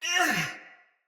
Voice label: Battle Female; Soldier; Barks; Sinecure Melee Mace Agent

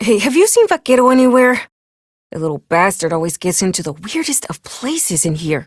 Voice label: Ambient Barks, en; Warframe; Mall Romance; Lettie

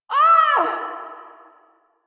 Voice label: Pain, en; Warframe; Corpus Lich; Persona B

